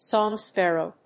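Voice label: "song sparrow", English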